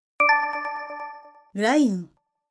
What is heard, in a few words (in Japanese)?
女性の声で「LINE」